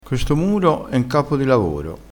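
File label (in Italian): "capo di lavoro", dialettale crespinese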